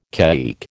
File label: "caique", American